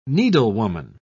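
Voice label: "needlewoman", British English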